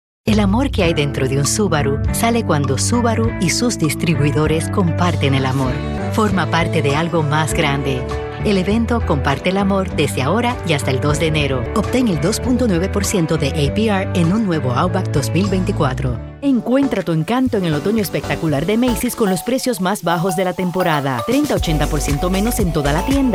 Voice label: Spanish; Commercial Demo; Voiceover